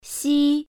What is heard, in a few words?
initial "x", Chinese pinyin